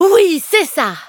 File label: victory, fr; Cookie Run